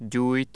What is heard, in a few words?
voiced palatal stop initial, hungarian